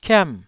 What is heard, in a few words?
"kiam4", taiwanese syllables